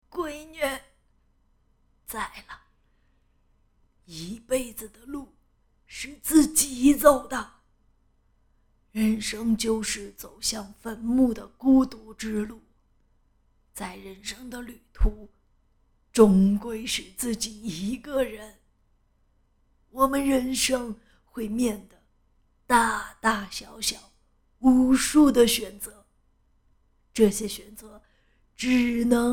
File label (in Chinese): 配音老年老妪